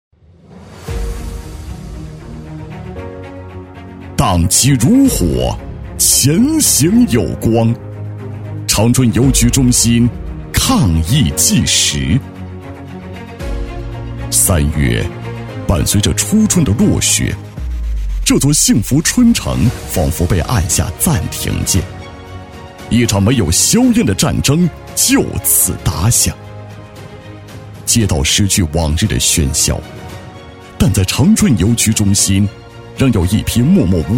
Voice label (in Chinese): 男 沉稳厚重; 长春邮区中心抗疫纪实; 男123-长春邮区中心抗疫纪实-沉稳厚重